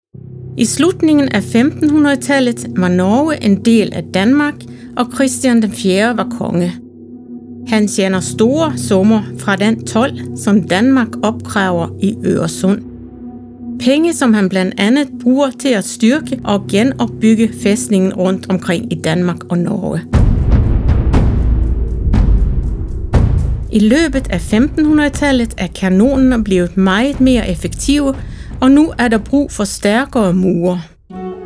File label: Dansk; Spot 18 Bastion Skarpe Nord; storygo